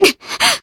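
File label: Voice damaged, Japanese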